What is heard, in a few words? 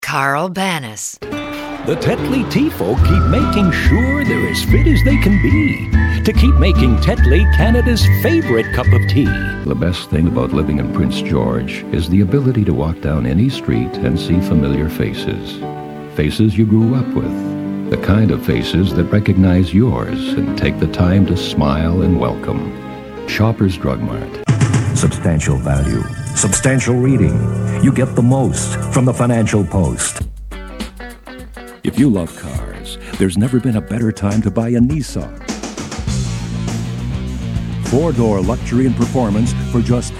commercial male